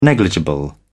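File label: "negligible", British English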